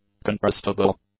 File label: "contrastable", English; adjectives